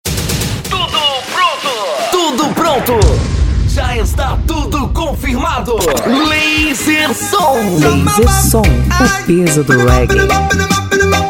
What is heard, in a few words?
spot laser som; planetamidia